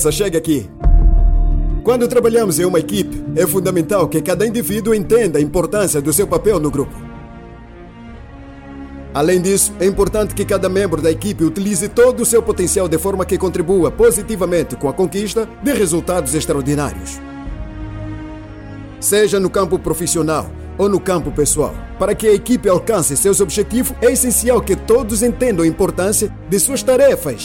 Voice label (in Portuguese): Motivacional, trabalho